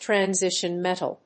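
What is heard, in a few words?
"Transition metal", English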